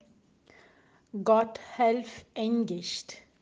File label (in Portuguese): Gotthelf Engicht, nomes alemães; aprenda a pronunciar os nomes alemães das ruas de blumenau; “Como se fala o nome dessa rua?”